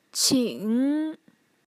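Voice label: "qing", Mandarin Chinese, third tone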